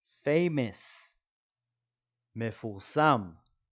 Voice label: "famous" – "מפורסם"